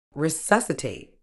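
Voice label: "resuscitate", medical English, British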